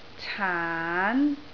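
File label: "caan2", Cantonese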